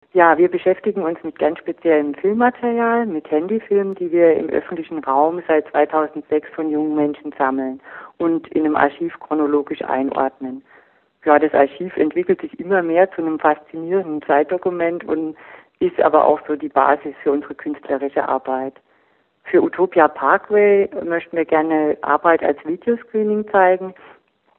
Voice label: Voicemail